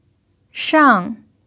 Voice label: "shang4", Chinese